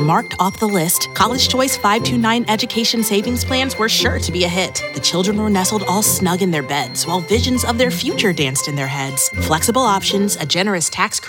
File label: Classic Holiday Radio